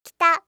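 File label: "kita", Japanese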